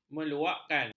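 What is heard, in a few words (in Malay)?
"meluakkan", Malay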